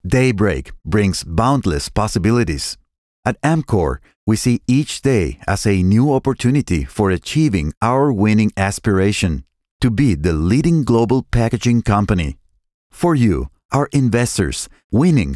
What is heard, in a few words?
English Corporate